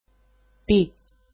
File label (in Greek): "pi", greek; πι